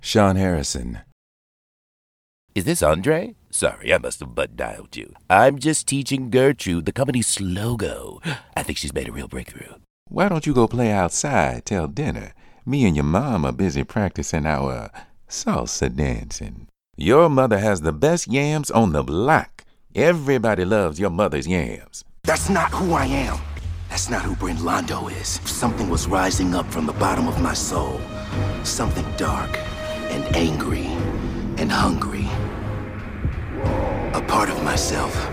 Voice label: animation; Innovative LA